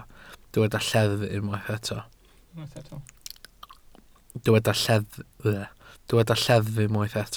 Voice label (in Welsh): "lleddf", Welsh